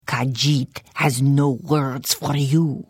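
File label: Hello, Khajiit female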